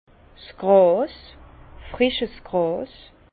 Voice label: "gras", Bas Rhin; herbe; vin

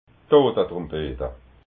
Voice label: "totatrumpeta", alsace

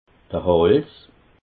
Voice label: "hals", alsace

